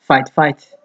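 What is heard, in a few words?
"fight fight", Nigerian Pidgin, male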